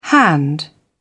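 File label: "hand", British English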